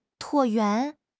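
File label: "椭圆", Mandarin Chinese; HSK6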